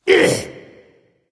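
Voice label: grunt hit, dragon; fafnir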